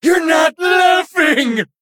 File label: Male Blood Elf Play